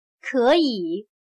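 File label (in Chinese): "可以" Mandarin Chinese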